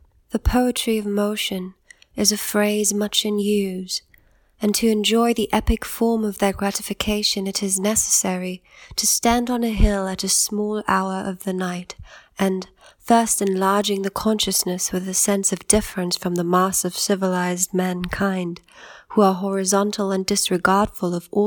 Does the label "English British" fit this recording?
Yes